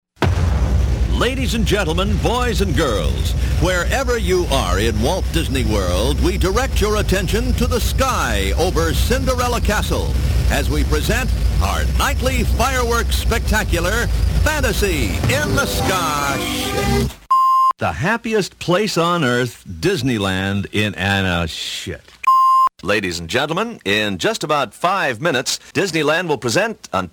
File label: Announcer; Disneyland; Outtake